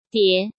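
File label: "Dié", Chinese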